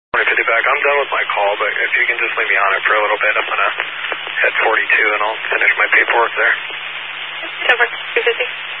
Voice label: Highway Patrol, Phoenix Metro West Area, scanner